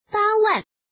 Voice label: woman